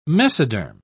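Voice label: "mesoderm", British English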